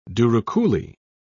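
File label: "douroucouli", British English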